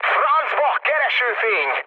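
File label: Hungarian searchlite mood med, speech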